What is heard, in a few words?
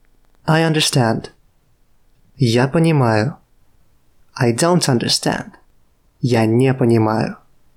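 I understand don't understand, Russian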